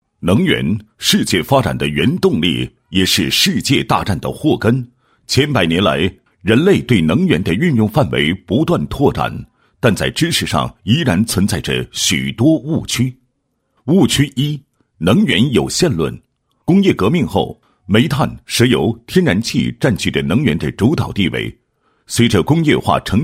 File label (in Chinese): 男 产品介绍 智慧能源宣传介绍; 庄合